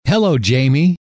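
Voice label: hello, male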